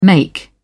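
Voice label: "make", British English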